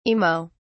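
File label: "imo", British English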